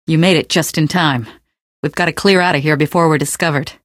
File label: gunboat just in time, female; Source Engine; video game; canals